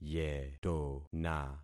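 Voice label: "yetona", concatenated syllables; Affixing experiment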